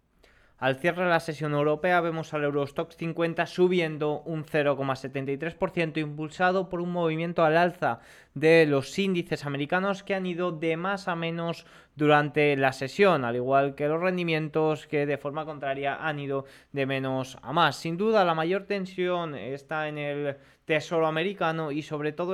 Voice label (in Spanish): radio; cierre al alza en europa con los indices americanos perdiendo fuerza